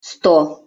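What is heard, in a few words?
"sto", Russian pronunciation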